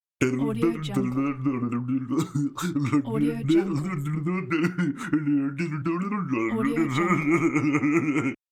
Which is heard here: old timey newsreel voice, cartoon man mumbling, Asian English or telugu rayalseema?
cartoon man mumbling